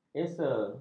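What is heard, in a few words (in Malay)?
"esa", Malay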